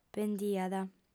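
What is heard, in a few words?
"pendiat", Sardinian, female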